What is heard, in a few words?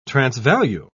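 "transvalue", British English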